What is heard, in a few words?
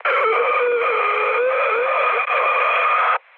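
Serbian damaged pilot mood low, speech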